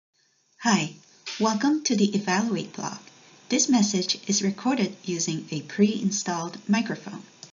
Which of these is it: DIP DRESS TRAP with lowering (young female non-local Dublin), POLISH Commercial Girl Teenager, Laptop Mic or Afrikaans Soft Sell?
Laptop Mic